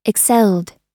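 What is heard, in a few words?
"excelled", US English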